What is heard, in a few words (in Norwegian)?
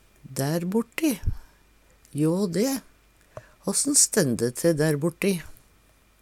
"daerborti", Numedalsmål; der borte, hjå deg; Adverb